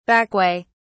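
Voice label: "backway", British English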